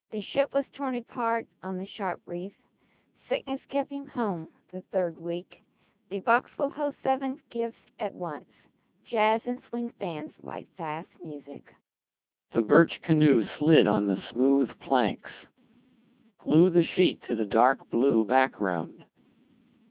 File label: speech CODEC2 2400 bps, American